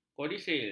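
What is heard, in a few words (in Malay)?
"kodisil", Malay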